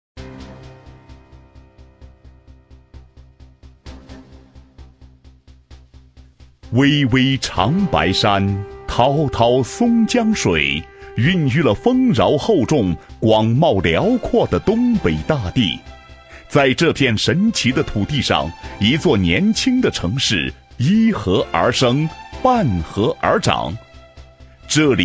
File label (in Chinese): 男 宣传片 沉稳; 中国长春